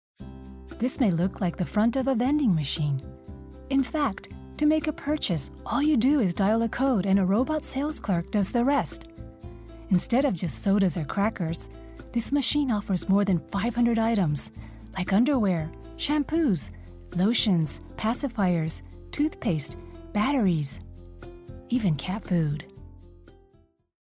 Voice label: foreigner